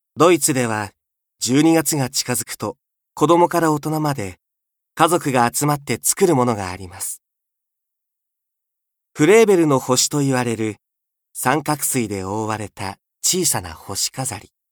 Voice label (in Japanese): 紀行ナレ